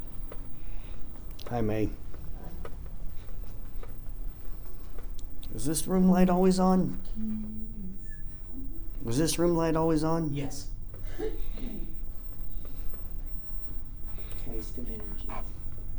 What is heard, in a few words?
3rd floor hall odd voice